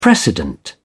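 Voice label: "precedent", British English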